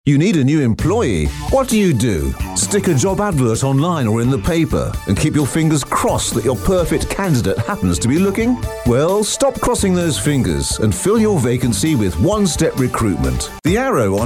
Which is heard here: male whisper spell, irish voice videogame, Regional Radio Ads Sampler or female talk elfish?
Regional Radio Ads Sampler